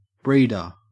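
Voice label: "breeder", Australian English; pronunciations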